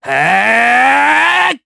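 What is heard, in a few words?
Vox Casting Japanese; Neraxis; kings raid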